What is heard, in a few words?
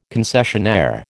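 "concessionaire", American English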